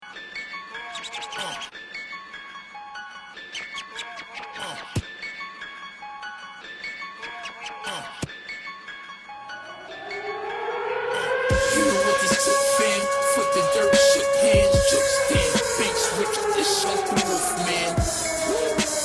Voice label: freestyle, radio rip, hiphop